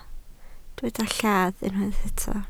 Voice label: "llath", Welsh

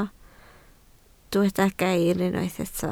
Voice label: "gair", Welsh

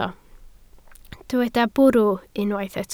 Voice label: "bwrw", Welsh

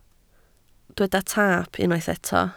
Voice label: "taap", Welsh

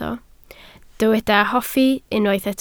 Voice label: "hoffi", Welsh

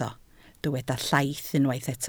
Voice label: "llaith", Welsh

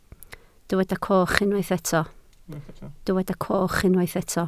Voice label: "coch", Welsh

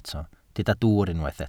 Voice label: "dwwr", Welsh

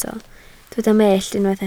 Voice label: "mellt", Welsh

